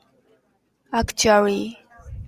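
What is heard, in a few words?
"actually", Japanese, female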